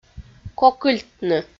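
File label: "кокыльтны" Komi, female; audio dict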